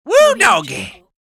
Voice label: redneck woo doggy